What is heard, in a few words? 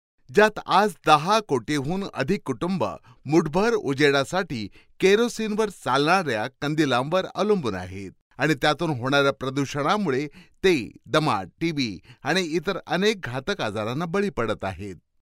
MARATHI MALE